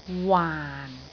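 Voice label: "waan4", Cantonese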